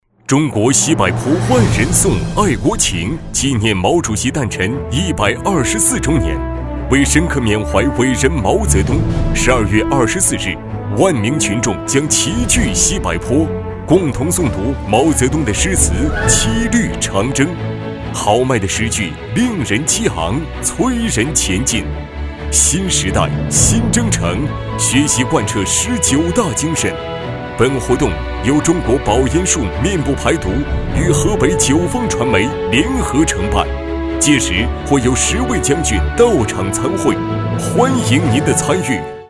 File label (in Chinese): 专题 浑厚庄严, 男; 毛主席诞辰